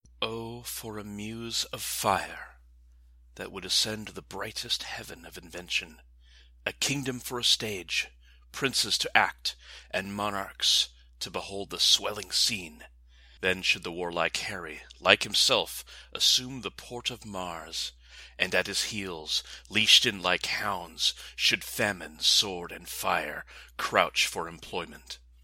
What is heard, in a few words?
Drama; Dramatic, selection from Henry V